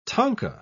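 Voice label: "tanka", British English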